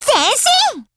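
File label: ATTACK, Japanese; NEGEV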